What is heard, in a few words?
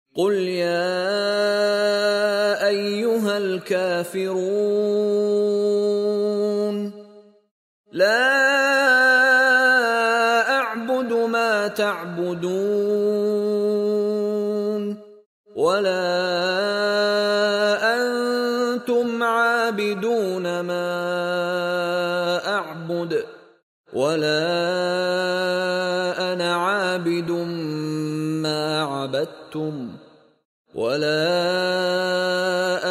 ARABIC Recitation; Surah 109 Al Kafirun